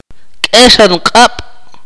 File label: "left", Akateko